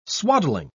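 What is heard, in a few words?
"swaddling", British English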